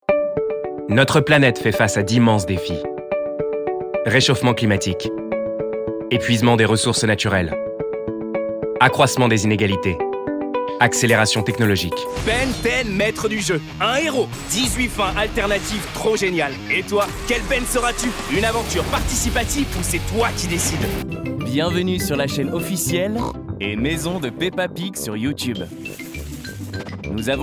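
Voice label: French General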